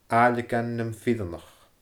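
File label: "aailleagan nanm fireannach", Scottish Gaelic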